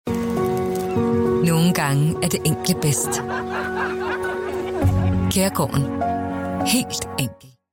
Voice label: commercial; kaergarden

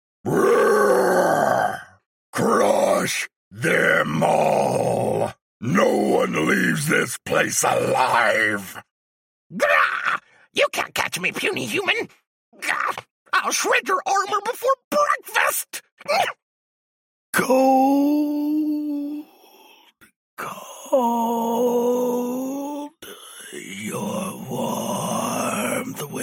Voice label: game creature monster

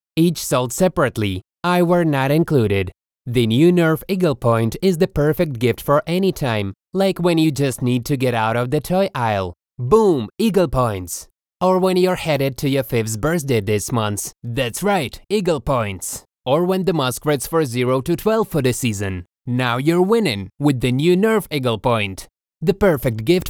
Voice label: in english; eaglepoint

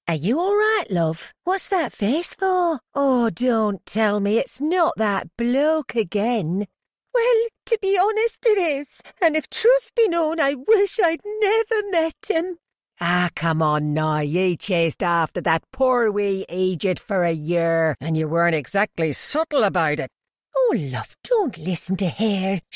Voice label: uk accents

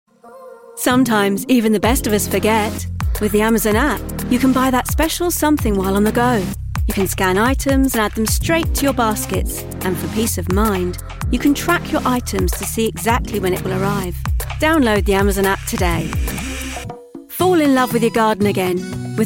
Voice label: commercial

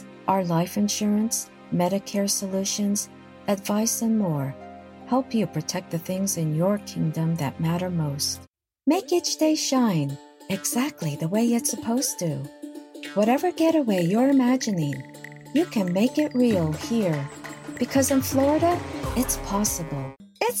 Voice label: commercials with music and background

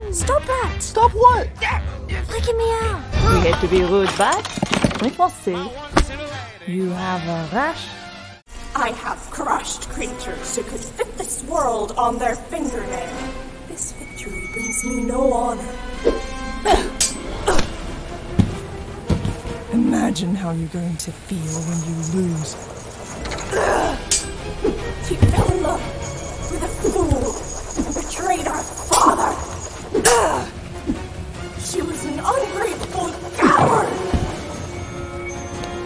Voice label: character

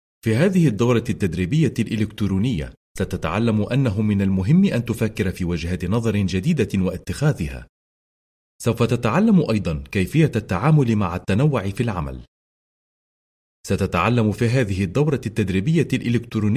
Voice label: arabic classical fusha e-learning

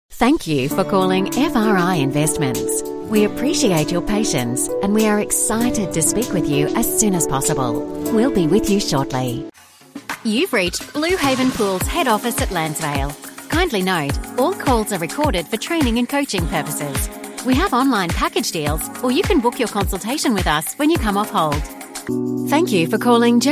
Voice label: telephony

